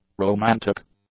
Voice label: "romantic", English; adjectives

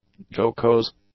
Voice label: "jocose", english; adjectives

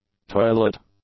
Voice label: "toilet", English; nouns

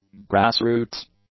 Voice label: "grassroots", english

